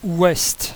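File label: "west", French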